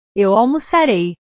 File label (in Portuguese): "eu almoçarei", portugues